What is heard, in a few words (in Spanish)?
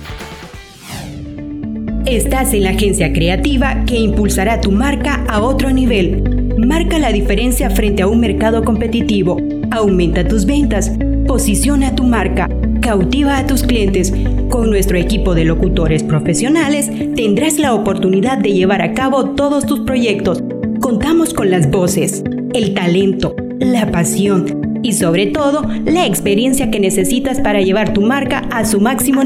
voz marketing